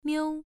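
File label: "miu", tone 1, pronunciation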